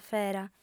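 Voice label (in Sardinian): "fera", female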